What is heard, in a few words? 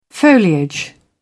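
"foliage", British English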